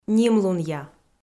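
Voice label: "нимлунъя", Komi-Zyrian, female; kpv audio dict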